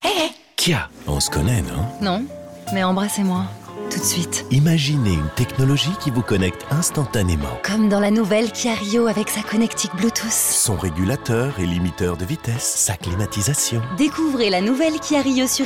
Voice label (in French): radio; KIA RIO